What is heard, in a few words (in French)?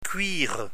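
"cuire", French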